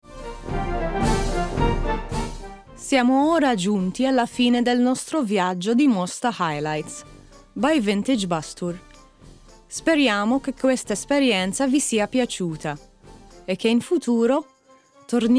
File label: CONCLUSION ITALIAN